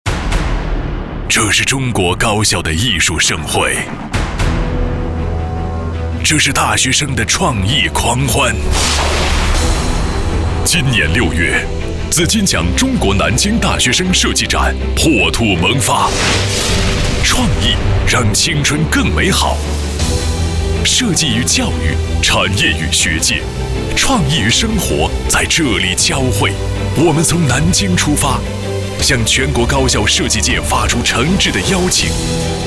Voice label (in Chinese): 男 宣传片 大气 先导片